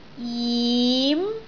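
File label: "jim2", Cantonese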